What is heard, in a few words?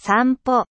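"sampo", Japanese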